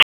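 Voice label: Raleigh Police North District Tactical, p25; wake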